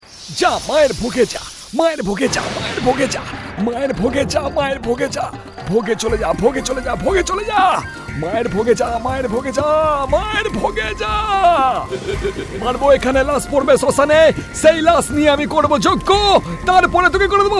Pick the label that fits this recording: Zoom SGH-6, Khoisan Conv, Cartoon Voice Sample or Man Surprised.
Cartoon Voice Sample